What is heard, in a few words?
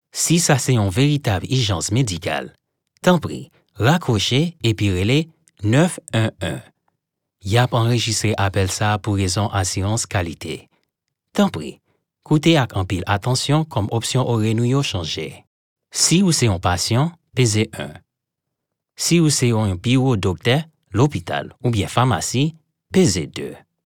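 Haitian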